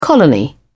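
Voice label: "colony", British English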